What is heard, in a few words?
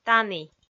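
"тані", Komi, female; audio dict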